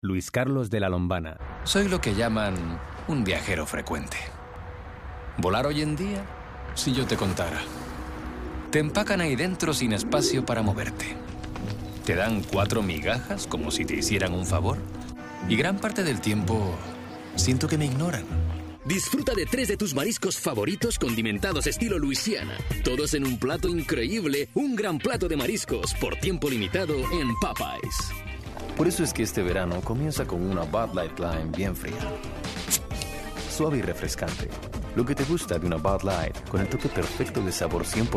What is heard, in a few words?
languages spanish; languages and accents